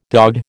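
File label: "dogged", American English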